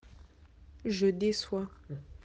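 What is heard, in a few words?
"décevoir", French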